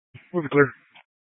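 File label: Dispatch, Scanner audio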